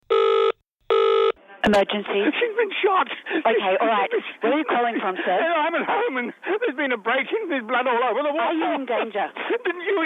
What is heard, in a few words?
shooting radio